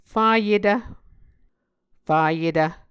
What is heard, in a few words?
"fayetv", muscogee